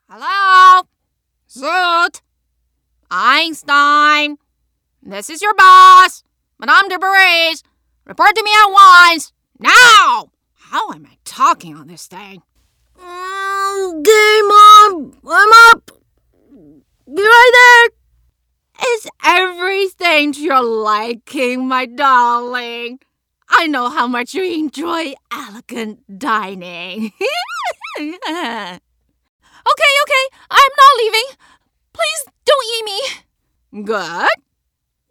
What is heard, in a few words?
anime samples